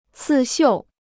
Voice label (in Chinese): "刺绣", Chinese